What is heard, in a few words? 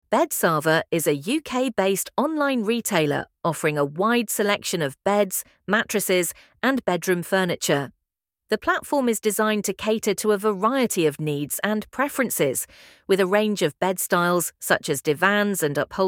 podcast